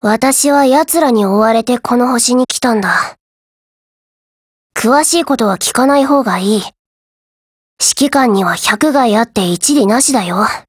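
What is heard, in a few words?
DIALOGUE, Japanese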